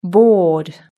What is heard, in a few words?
"board", british english